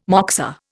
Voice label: "moksa", Indian